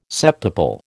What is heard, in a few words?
"septuple", American